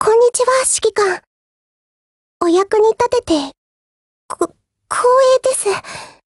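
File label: HELLO, Japanese; GF Alarm; USPCompact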